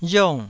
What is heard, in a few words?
"riong4", taiwanese